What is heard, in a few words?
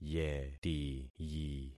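"yetiyi", concatenated syllables; Affixing experiment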